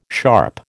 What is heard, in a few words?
"sharp", American